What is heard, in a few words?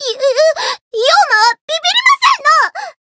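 encounter fear, Japanese; suzette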